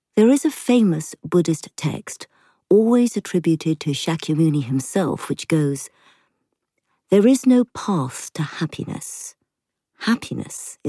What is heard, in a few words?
Audiobook; 40s-60s. Female. British Asian/RP.